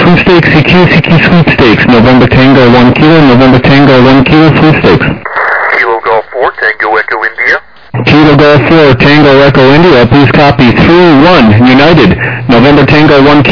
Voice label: SSB USB 21MHz

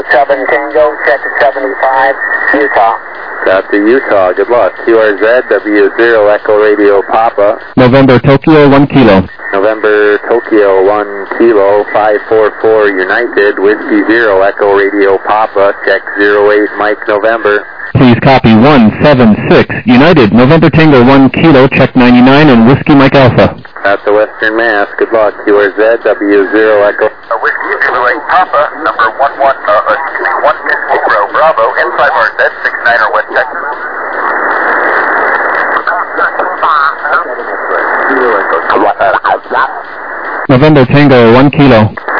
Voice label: SSB USB 21MHz

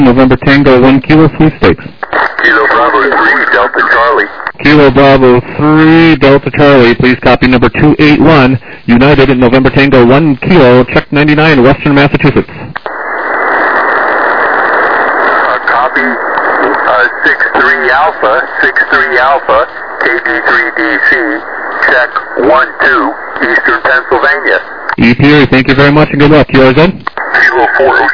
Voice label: LSB 7MHz

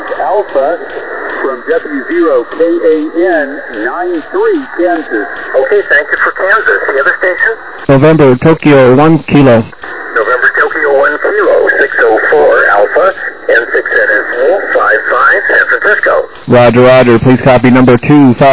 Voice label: SSB USB 21MHz

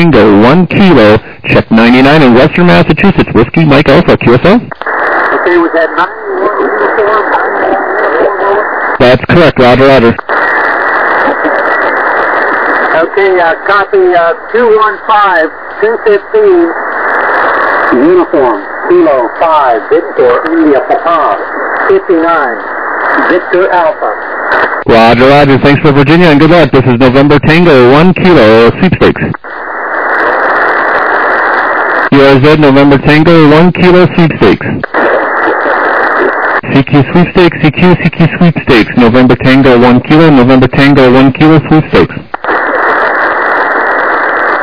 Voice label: SSB LSB 7MHz

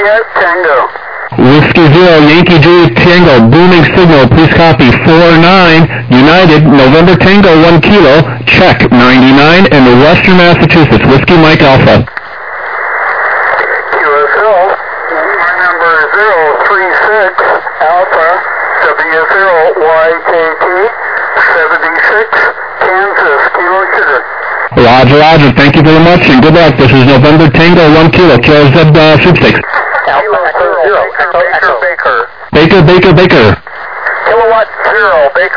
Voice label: SSB USB 21MHz

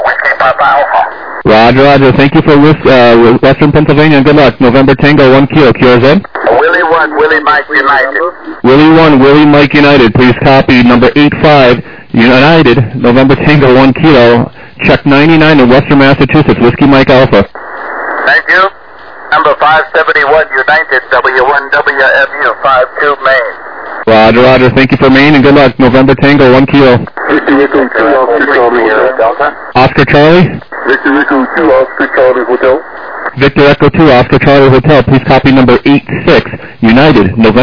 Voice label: LSB, 7MHz

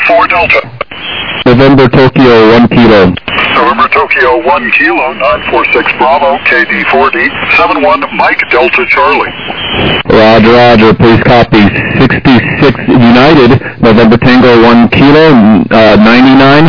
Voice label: LSB, 7MHz

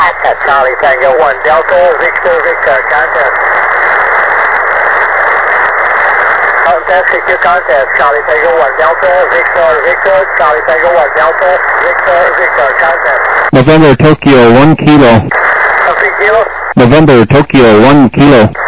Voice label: USB, 28MHz; ARRL10M

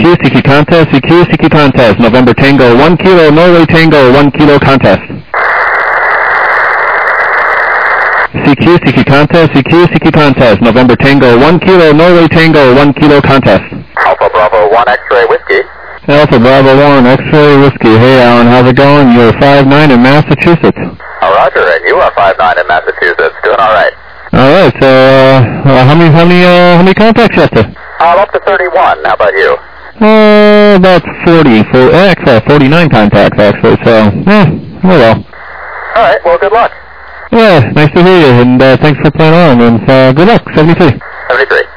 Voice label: USB 28MHz; ARRL10M

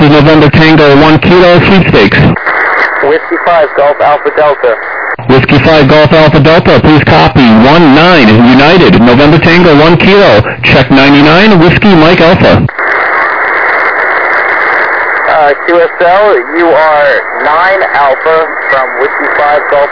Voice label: USB, 21MHz

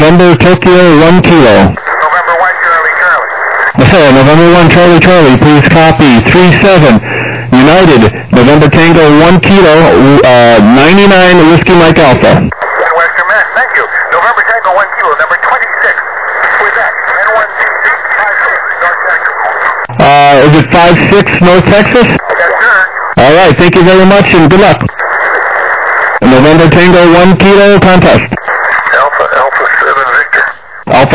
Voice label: USB 21MHz

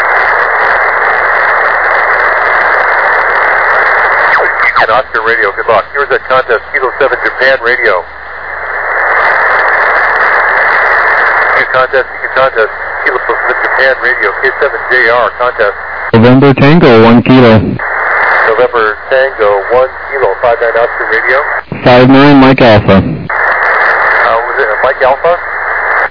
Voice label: USB 28MHz; ARRL 10M

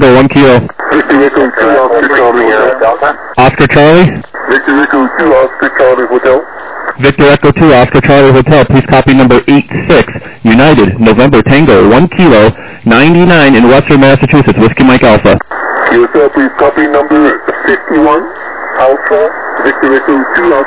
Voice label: SSB LSB 7MHz

